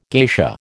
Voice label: "geisha", American